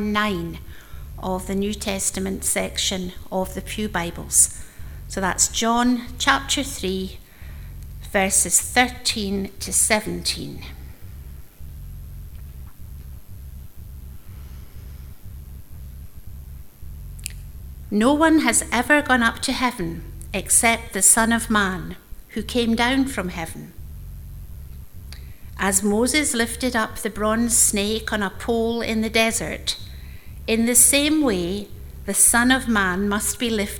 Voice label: read